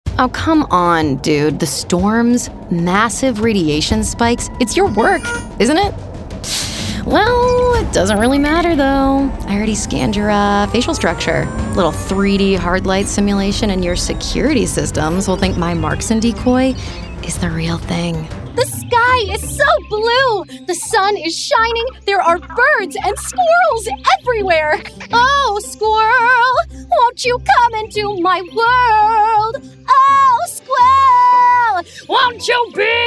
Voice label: Character, femalevoices

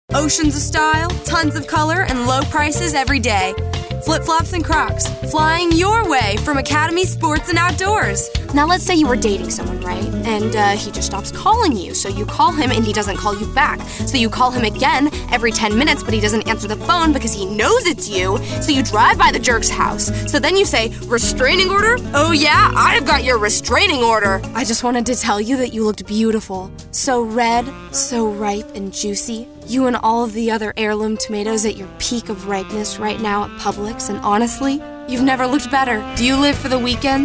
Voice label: commercial vo, femalevoices

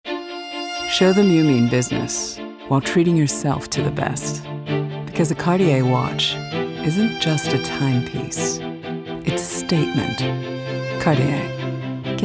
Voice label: V.O. Commercial, femalevoices

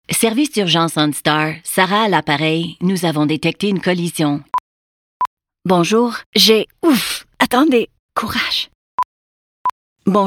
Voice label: FR COMMERCIALS, female voices

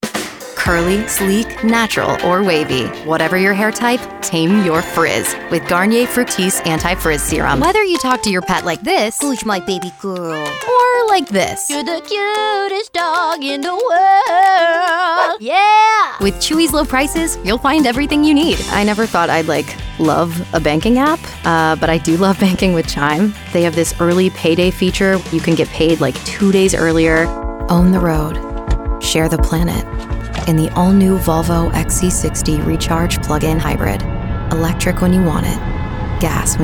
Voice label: Commercial, female voices

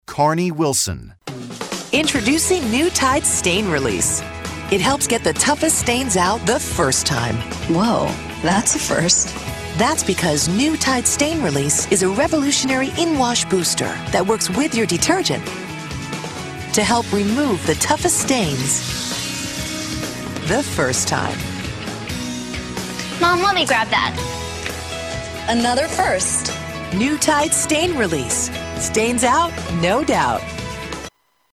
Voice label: Commercial, female voices

